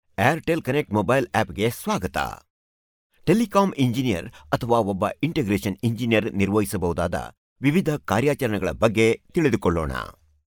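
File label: KANNADA male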